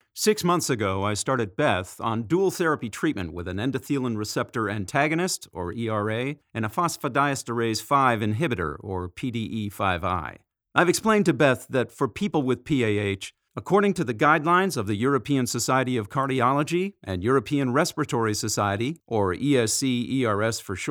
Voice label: English American training e-learning